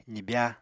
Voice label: "zibya", Apatani